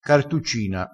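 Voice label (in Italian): "cartuccina", dialettale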